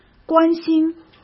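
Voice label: "关心" Mandarin Chinese; hsk; chinese-flashcards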